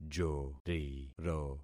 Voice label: "joriro", syllables; Affixing experiment

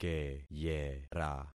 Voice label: "keyera", concat syllables; Affixing experiment

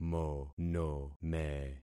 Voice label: "monome", concat syllables; Affixing experiment

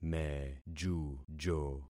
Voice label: "mejujo", concat syllables; Affixing experiment

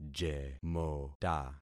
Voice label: "jemota", concat syllables; Affixing experiment

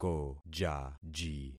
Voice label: "kojaji", concat syllables; Affixing experiment

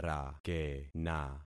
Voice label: "rakena", concat syllables; Affixing experiment

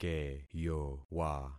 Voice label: "keyowa", concat syllables; Affixing experiment

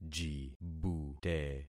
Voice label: "jibute", concat syllables; Affixing experiment

